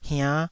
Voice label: "hiann5", taiwanese